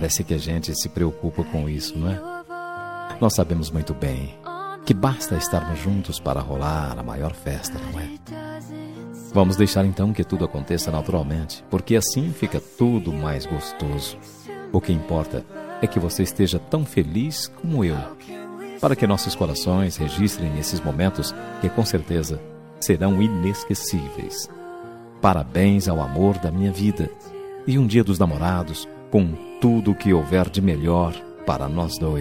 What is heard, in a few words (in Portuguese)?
aniv masc, voz masculina; dia dos namorados aniversario